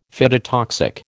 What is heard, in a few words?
"phytotoxic", American